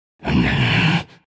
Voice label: anger; Bounty Hunter